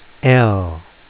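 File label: name "l", 英語アルファベットの文字の名前; phonics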